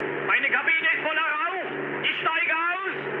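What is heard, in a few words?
German on fire mood low, speech; War Thunder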